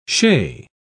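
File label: "chez", British English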